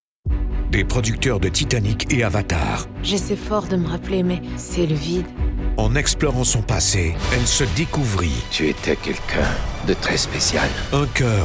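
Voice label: Canadian French commercial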